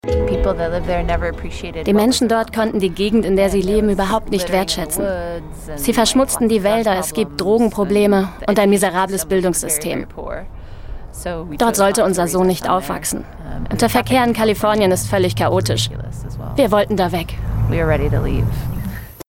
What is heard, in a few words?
female german commercial; ideasonora